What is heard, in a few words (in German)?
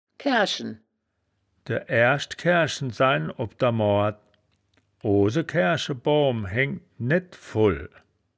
"kaeaerschen", wittlich